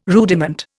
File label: "rudiment", Indian